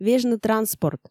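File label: "вежны транспорт", Komi, female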